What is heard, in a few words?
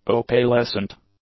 "opalescent", English; adjectives